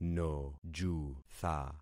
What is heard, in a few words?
"nojutha", concat syllables; Affixing experiment